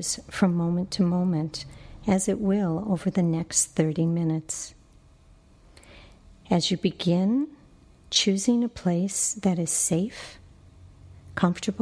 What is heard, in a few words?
Body Scan with Bells